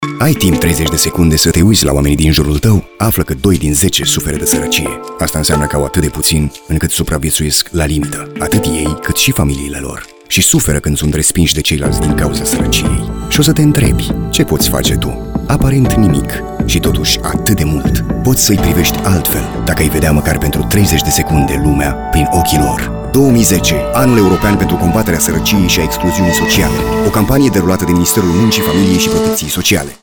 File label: Spot radio; An European